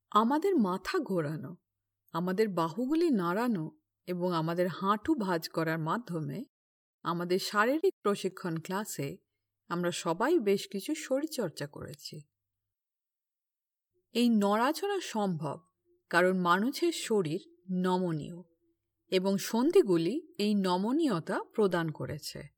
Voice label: Bengali Medical